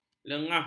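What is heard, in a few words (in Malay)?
"lengah", Malay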